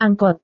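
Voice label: "angkot", Indonesian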